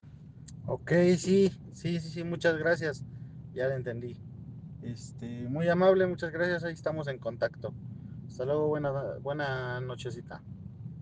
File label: whatsapp ptt